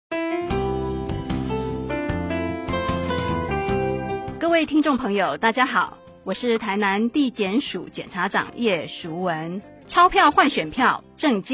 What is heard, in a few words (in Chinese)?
反賄選宣導廣播 國語; 111年地方公職人員選舉